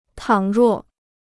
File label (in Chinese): "倘若", Chinese